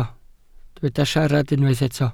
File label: "siarad", Welsh